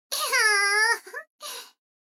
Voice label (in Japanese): 女の子 台詞